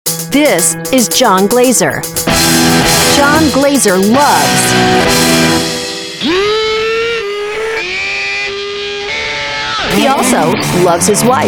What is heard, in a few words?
Promo